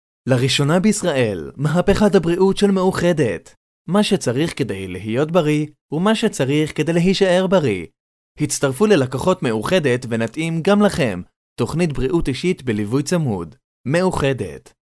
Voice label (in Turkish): Ibranice Erkek; seslendirme